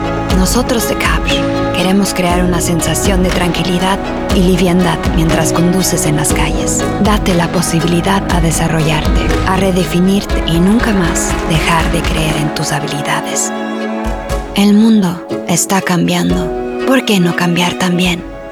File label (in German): spanish werbung; kapsch